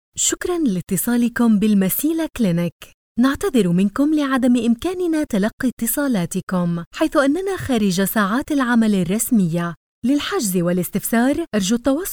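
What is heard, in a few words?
Arabic, female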